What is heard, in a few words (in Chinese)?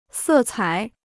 "色彩", Chinese